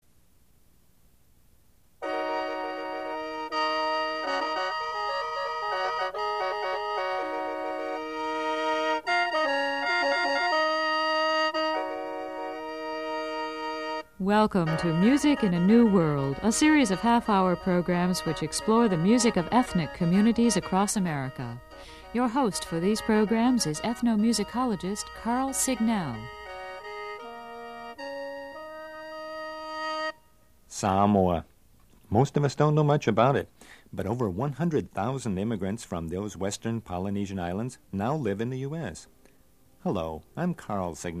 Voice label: Samoan hi